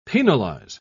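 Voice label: "penalise", English, British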